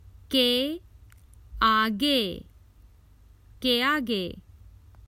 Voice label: Common Hindi Phrases for travel Past